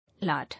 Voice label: "Lard", English